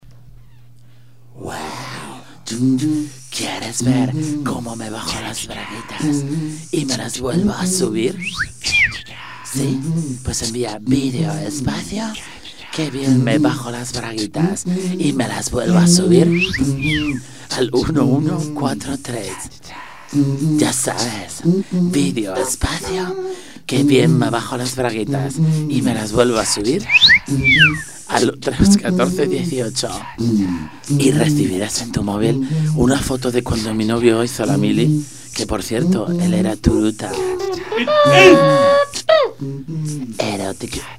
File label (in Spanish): Publicidad; Novia turuta